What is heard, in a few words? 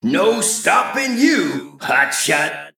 Male Mech Combat Win